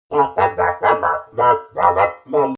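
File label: cog voice over murmur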